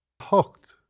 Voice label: "hocht", Irish